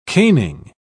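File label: "caning", British English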